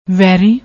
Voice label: "vairi", Punjabi; ਵੈਰੀ

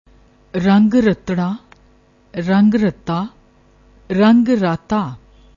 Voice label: "rang rathra", Punjabi

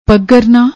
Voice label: "pagarna", Punjabi

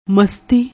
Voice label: "masti", Punjabi; ਮਸਤੀ

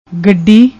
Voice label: "gadi", Punjabi

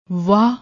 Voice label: "vah", Punjabi; ਵਾਹ

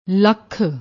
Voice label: "lakkh", Punjabi

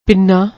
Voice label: "pinna", Punjabi

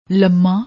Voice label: "lamma", Punjabi; ਲੰਮਾ

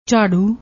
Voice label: "jharroo", Punjabi

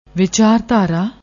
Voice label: "wichardhara", Punjabi; ਵਿਚਾਰਧਾਰਾ

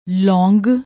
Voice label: "long", Punjabi; ਲੌਂਗ

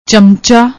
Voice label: "chamcha", Punjabi

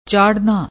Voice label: "chaadna", Punjabi; ਚਾੜ੍ਹਨਾ

